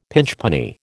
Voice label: "pinchpenny", American